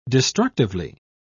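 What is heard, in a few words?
"destructively", British English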